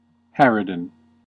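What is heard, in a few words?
"harridan", US English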